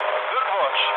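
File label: German player killed enemy mood low, speech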